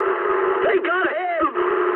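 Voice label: aircraft destroyed mood high, speech English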